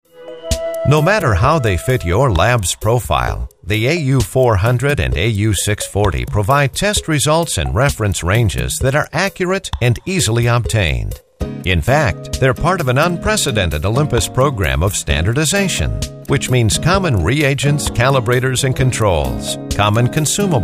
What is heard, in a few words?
CORPORATE, male voice talent